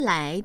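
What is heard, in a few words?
"lai2", Chinese, female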